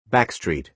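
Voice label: "backstreet", American English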